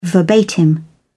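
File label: "verbatim", British English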